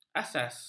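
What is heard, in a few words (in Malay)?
"asas", Malay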